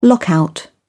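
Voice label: "lockout", English, British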